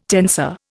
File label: "tensor", Indian